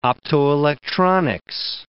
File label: "optoelectronics", English